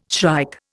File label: "shrike", Indian